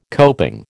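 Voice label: "coping", American English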